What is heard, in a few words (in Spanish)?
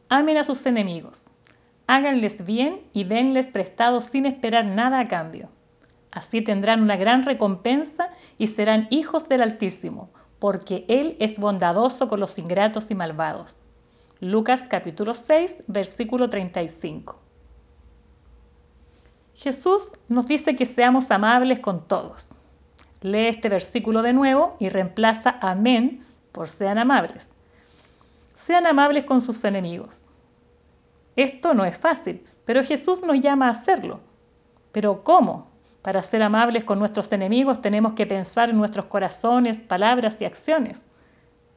devocional